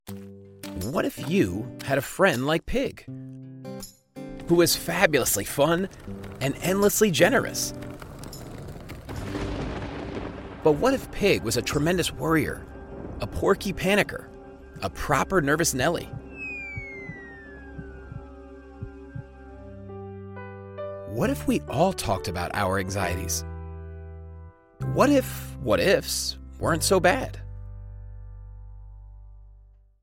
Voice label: Childrens Book